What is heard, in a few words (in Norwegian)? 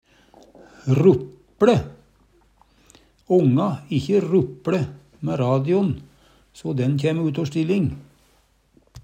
"rup Le", Numedalsmål